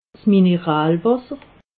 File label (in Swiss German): "mineralwasser", alsace